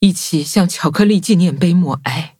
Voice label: touch, zh-hant; Cookie Run